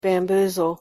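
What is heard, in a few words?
"bamboozle", English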